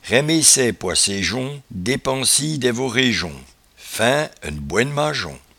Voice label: "raimessaiepoisejon", patois jura

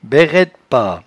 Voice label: "bairraiedepas", patois jura

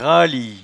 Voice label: "gralie", patois jura

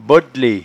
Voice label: "bodle", patois jura

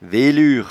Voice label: "velure", patois jura

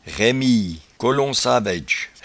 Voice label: "raimiecolon", patois jura

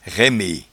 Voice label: "raimepain", patois jura

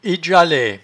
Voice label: "edgealaie", patois jura

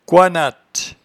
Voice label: "coinatte", patois jura

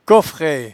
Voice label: "coffraie", patois jura

